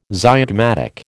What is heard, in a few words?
"zygomatic", American